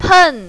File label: "pen4", mandarin, female